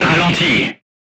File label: voice message drive slowly, crew tanks french